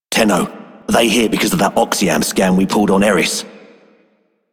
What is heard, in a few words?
Male Repo, Male One, en; Dialog; Warframe; Solaris Venus